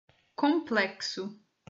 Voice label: "complexo", portuguese

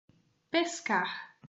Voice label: "pescar", Portuguese

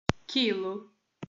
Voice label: "quilo", portuguese

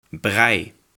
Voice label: "brei", Dutch

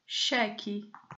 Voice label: "cheque", portuguese

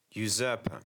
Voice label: usurper, English

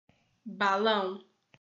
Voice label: "balão", portuguese

